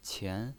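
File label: "qian" second tone, pinyin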